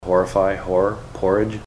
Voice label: horrify horror

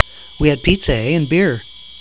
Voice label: narr eh

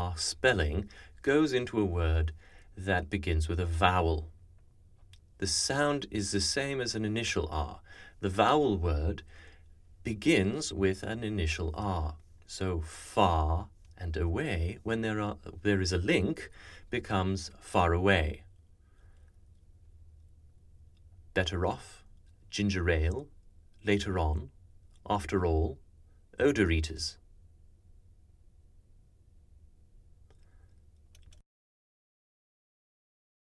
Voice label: Linking R, RP; speech; dialects

